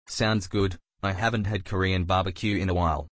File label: male